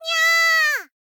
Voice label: "nyaa", girl